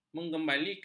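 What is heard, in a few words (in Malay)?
"mengembalikan", Malay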